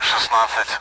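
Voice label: Speech Sound Russian Common AWACS Numbers; DCS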